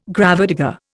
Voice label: "gravedigger", Indian